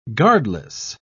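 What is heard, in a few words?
"guardless", British English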